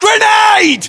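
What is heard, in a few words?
inform incoming grenade, battlechatter, British; english; uk; localized english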